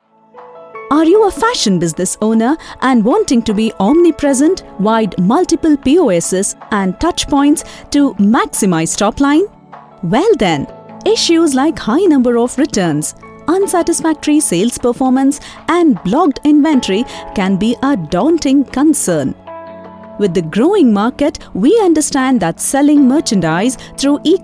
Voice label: Corporate Eng

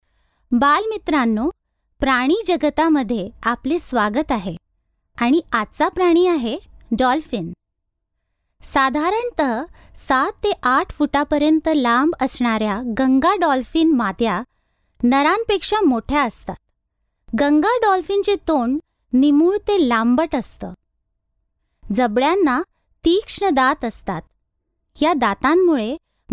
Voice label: NARRATION MARATHI

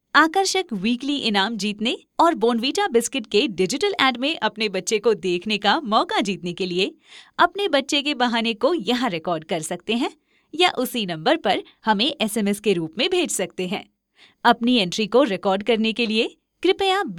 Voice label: Hindi IVR

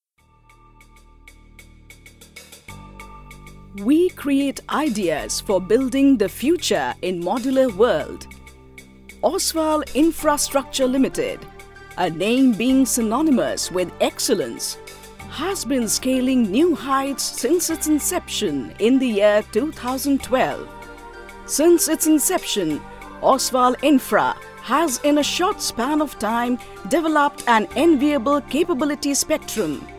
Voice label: CORP ENG

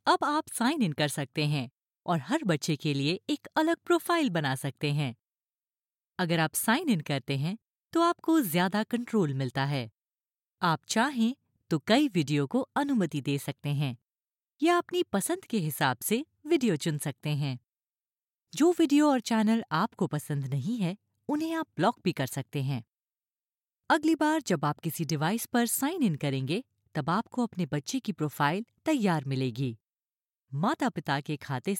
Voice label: Explainer Hindi

